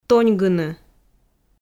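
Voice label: "тоньгыны", Komi, female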